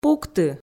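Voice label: "пукты" Komi, female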